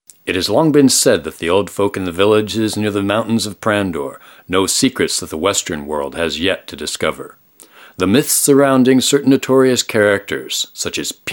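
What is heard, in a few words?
male English documentary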